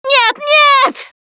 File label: grabbed by smoker, russian; Producer